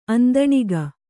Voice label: "ಅಂದಣಿಗ", Kannada